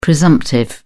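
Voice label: "presumptive", British English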